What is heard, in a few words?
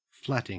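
"flatting", Australian English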